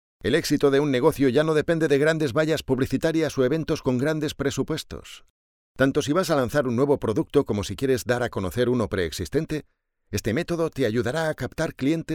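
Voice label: Spanish Spain, male, voice over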